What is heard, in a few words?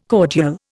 "cordial", Indian